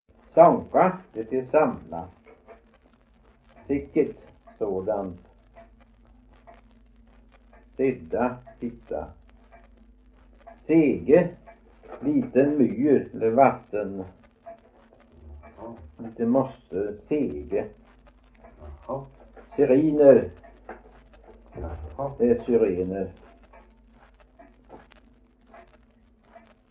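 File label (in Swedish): Veddige Halland, Svenska sagor dialekter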